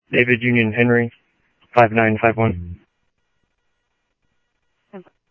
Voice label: PD Police, scanner audio; SCTSDLE PD - PD2 ScottsdalePolice; ThompsonPeakHigh